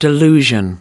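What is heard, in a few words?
"delusion", American English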